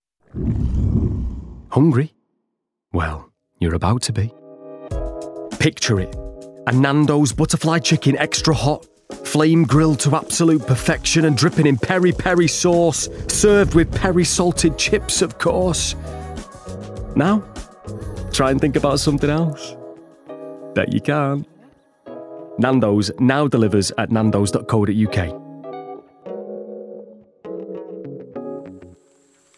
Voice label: Commercial